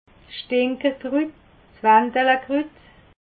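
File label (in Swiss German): "stinkerkrut", Alsatian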